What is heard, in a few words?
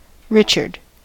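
"richard", American English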